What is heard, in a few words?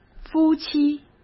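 "夫妻", Mandarin Chinese; chinese flashcards; hsk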